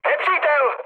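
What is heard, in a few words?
enemy detected, Czech, mood med; War Thunder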